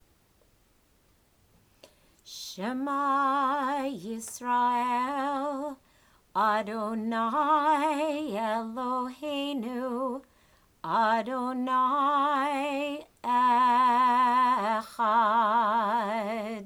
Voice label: Shema with Baruch Shem K'vode whispered in an undertone; religious school; student prayers